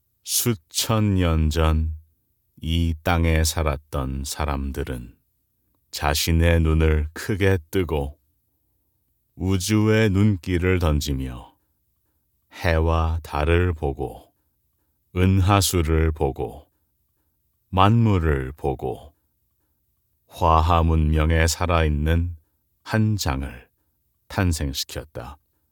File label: korean